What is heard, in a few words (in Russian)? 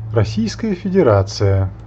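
"Российская Федерация", Russian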